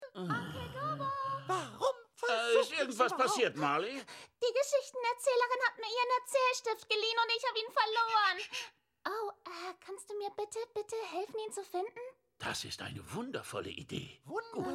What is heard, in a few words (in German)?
Synchron; Die Fraggles